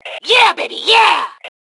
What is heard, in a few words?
Compliment Awesome, Female